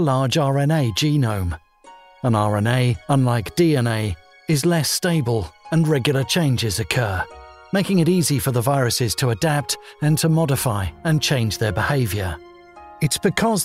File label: english british medical narration